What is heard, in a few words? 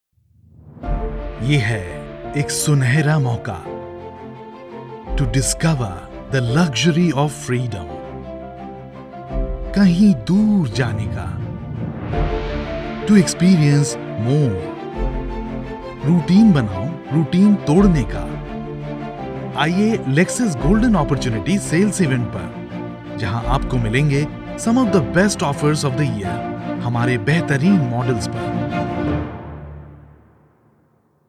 Car Commercial Hindi